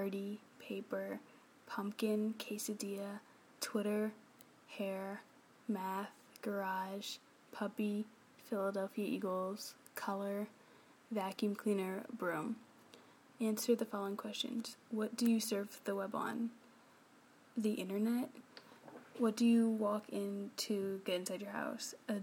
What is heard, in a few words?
accent challenge